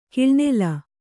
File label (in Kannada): "ಕಿಳನಿಲ", kannada